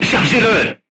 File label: voice message load, crew tanks french